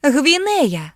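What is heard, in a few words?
"guinea", Ukrainian; gcompris; geography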